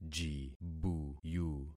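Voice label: "jibuyu", concatenated syllables; Affixing experiment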